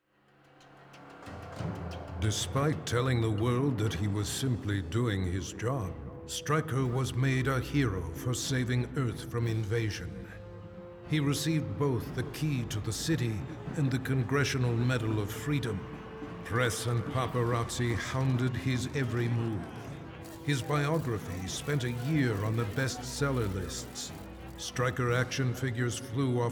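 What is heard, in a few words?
music voice over endings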